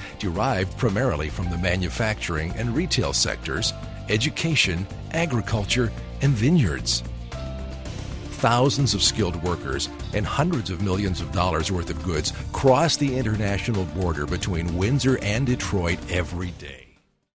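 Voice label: Canadian English, male, voice over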